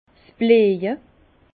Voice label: "blieje", Bas Rhin; floraison; vin

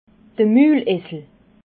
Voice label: "mulesel", alsace

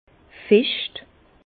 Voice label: "fescht", Bas Rhin; vin

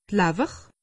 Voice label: "lawwer", alsace; medecine

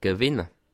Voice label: "gewinne", alsace

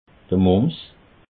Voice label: "mumps", alsace

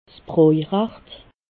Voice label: "brojrecht", alsace

